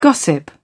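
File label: "gossip", English, British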